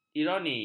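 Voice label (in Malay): "ironi", Malay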